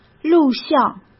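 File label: "录像", Mandarin Chinese; hsk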